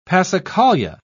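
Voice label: "passacaglia", British English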